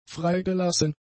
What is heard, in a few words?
"freigelassen", German; adjectives